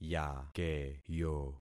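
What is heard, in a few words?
"yakeyo", concatenated syllables; Affixing experiment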